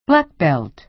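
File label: "black belt", American English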